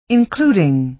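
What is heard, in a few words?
"including", American English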